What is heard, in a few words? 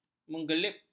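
"mengerlip", Malay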